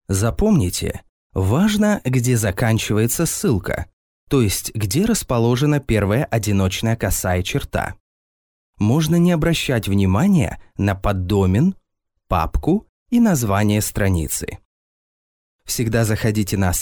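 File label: E-learning, Russian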